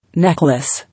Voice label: "Necklace", English

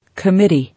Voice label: "Committee", English

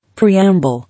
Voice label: "Preamble", English

